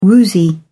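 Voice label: "woozy", British English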